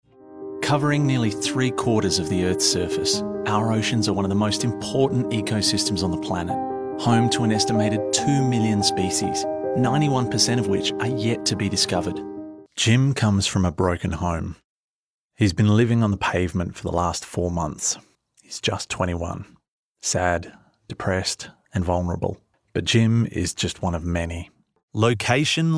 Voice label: Narration Documentaries